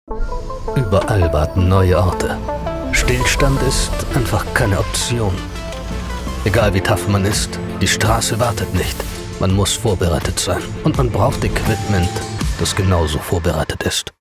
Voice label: german commercial